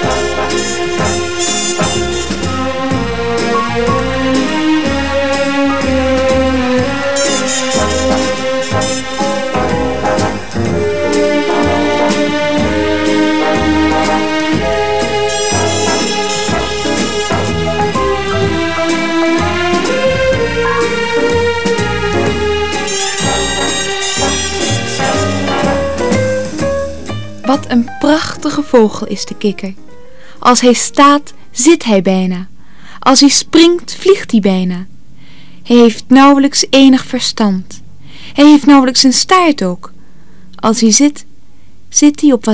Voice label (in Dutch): gedichtjes met muziek